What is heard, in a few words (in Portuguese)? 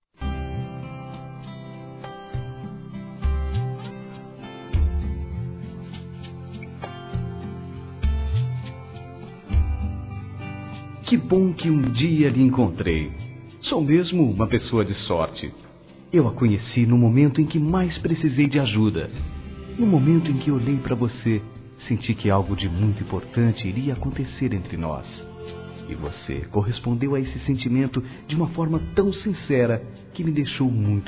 ANIV. NAMORO M; Aniversários; ANIVERSARIO romantico; masc